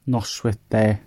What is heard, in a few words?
good evening, gwentian